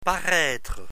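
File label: "paraitre", French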